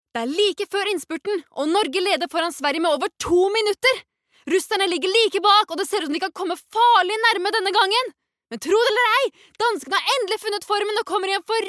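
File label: Norwegian dub commentator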